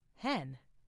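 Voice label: "hen", English; FoodChain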